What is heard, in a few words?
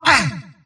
pain; sniper